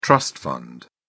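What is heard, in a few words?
"trust fund", British English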